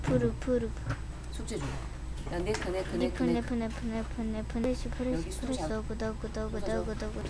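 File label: "neck", spoken word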